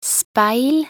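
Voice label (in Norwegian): "speil", Norwegian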